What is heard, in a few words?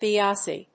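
"ppiase", English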